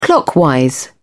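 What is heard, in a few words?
"clockwise", British English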